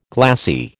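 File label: "glassy", American English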